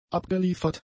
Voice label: "abgeliefert", German; adjectives